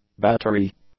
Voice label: "battery", English; nouns